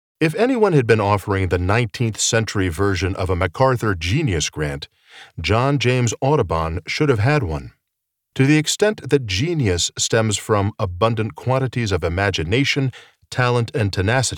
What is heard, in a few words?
Audiobook Autobiography